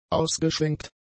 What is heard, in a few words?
"ausgeschwenkt", German; adjectives